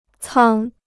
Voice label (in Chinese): "舱", Chinese